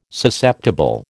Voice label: "Susceptible", American